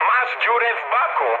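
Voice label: damaged oil, mood low, Polish speech; War Thunder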